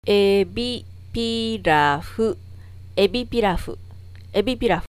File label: shrimp pilaff, katakana; エビピラフ; KATAKANA WORDS - 2